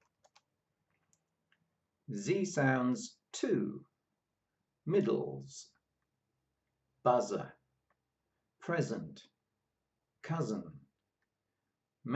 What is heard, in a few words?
Z sounds, pronunciation of australian english